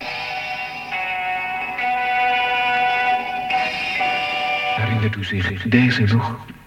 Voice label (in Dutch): Jingle herinnert u zich deze nog lang; Allerhande